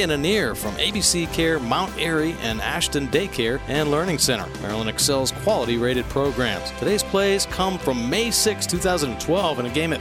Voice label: kids Call spot